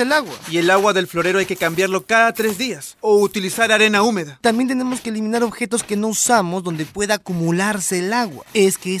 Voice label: SPOT RADIAL DENGUE NACIONAL

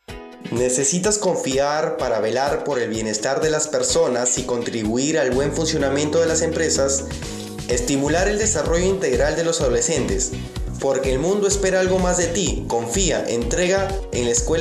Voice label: spot publicitario

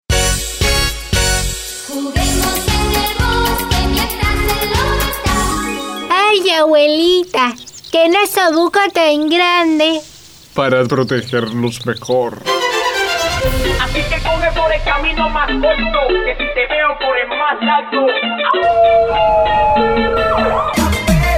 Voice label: promo caperucita y el lobo con nasobuco dramatizado

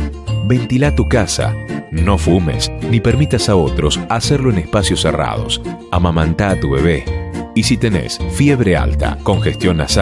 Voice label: spot gripe invierno locutor

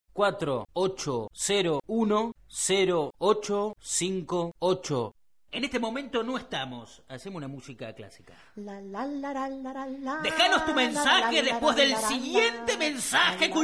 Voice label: contestador telefónico; la llama que llama

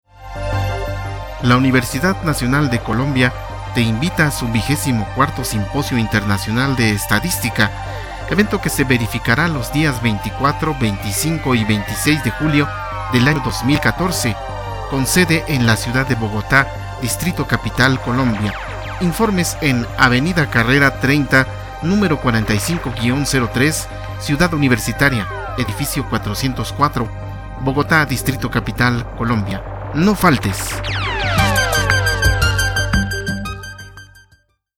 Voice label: spot publicitario

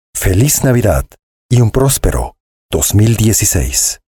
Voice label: cuña feliz navidad y un prospero voz en frio

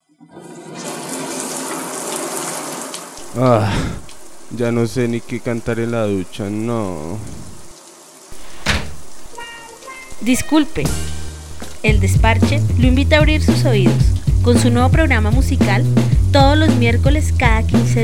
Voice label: promo

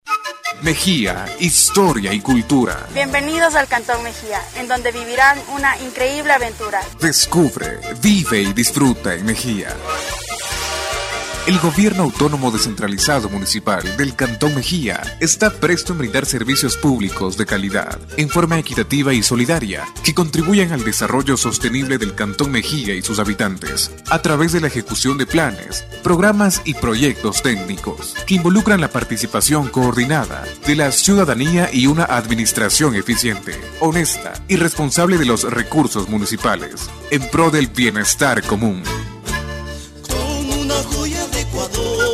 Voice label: cuña

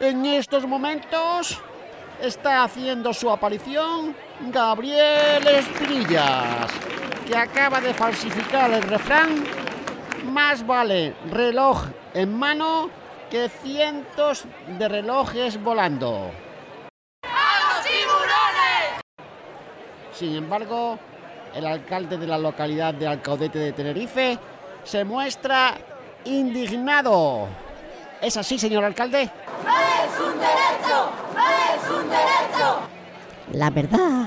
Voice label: refranes guion radio locutores voiceovers; falsificadores

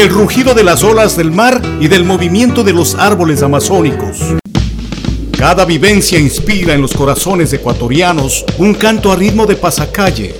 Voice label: SPOT ECUADOR Y SU MUSICA

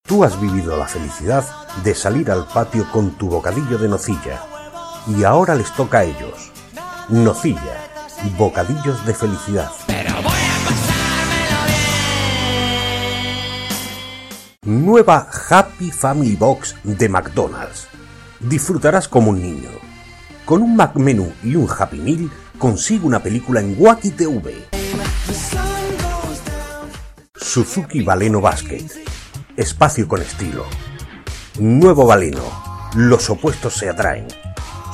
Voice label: voz publicitaria

